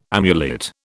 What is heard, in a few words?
"amulet", British